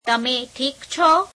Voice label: gujarati, Are you okay